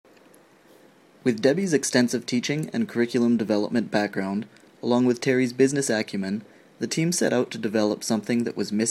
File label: english pronunciation